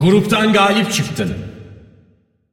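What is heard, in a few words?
announcer female; tr tr; win bracket generic; Clash; tournaments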